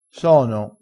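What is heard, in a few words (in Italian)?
"sono", vocabolario dialettale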